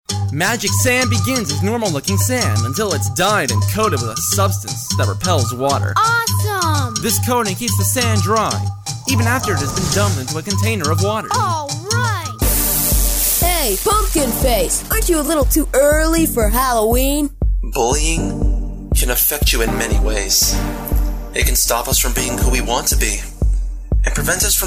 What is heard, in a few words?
voiceover